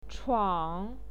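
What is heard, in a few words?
"chuang", Mandarin Chinese, third tone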